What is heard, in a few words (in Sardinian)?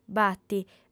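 "bati", female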